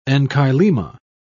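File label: "enchylema", British English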